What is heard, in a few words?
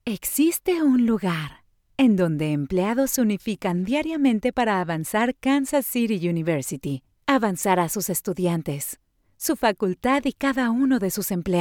spanish mexican corporate videos